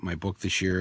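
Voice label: "my book thiS year", American English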